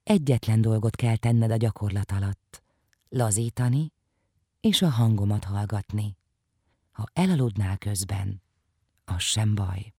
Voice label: Meditation